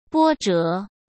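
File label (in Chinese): "波折", Mandarin Chinese; chinese flashcards; hsk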